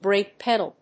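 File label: "brake pedal", English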